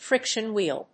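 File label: "friction wheel", English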